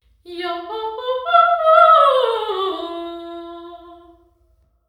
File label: voice convolved, Theatre